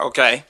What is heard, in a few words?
"okay", expletives